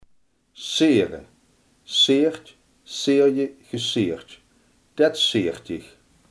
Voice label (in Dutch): "sieren", Limburgs